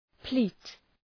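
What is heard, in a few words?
"pleat", English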